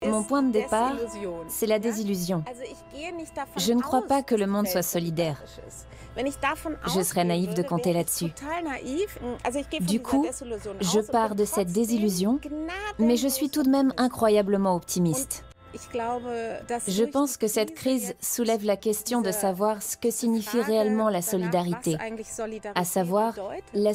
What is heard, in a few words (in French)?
voiceover VF; ARTE; Magculturel